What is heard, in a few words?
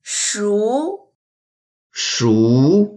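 "shu2", Mandarin Chinese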